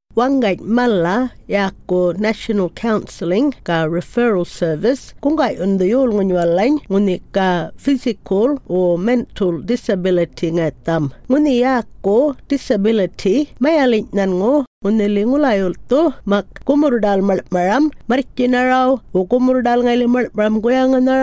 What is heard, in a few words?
yolngu matha